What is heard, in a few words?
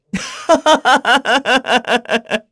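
vox Happy, Korean; Lucias; kingsraid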